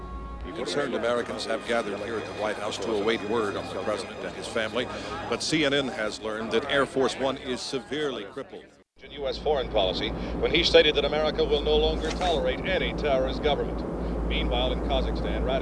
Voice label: male tv